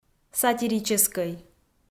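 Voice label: "сатирическӧй", Komi, female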